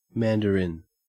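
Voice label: "mandarin", Australian English; pronunciations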